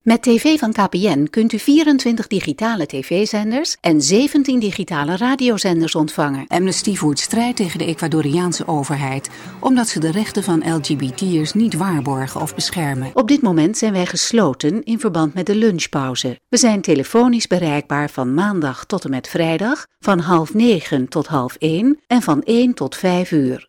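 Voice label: Dutch, narration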